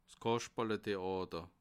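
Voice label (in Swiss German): "kaschperletheater", Alsatian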